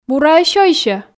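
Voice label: "бура-ӧ сёйсьӧ", Komi, female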